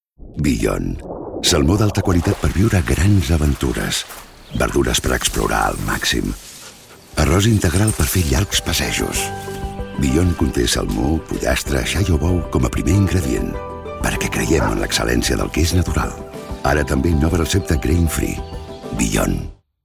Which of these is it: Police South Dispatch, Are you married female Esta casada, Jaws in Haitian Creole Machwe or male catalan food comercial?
male catalan food comercial